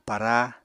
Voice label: "para", apatani